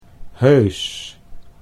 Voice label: "heus", Dutch

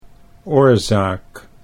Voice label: "oorzaak", Dutch

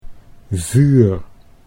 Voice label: "vuur", Dutch

